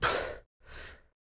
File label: emotion